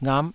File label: "ngap5", taiwanese syllables